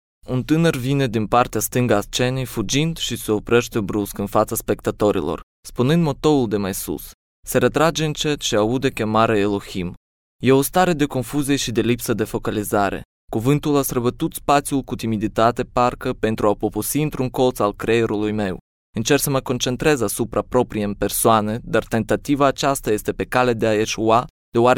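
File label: romanian male narration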